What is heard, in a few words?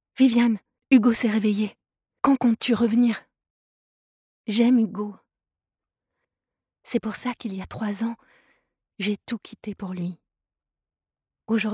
locutora francesa french voice artist